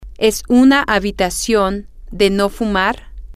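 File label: is it a non smoking room, spanish, female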